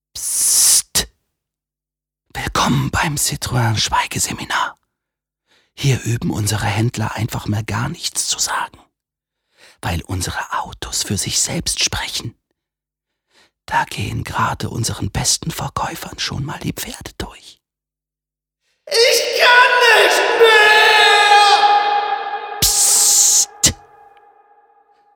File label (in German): autospot; LOFT VOICES